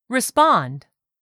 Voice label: "RESPOND", english; 3000 words

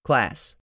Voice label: "CLASS", english; 3000 words

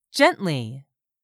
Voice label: "gently", English; 3000 words

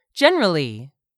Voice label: "generally", English; 3000 words

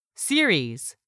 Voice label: "SERIES", english; 3000 words

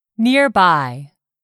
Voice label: "nearby", English; 3000 words

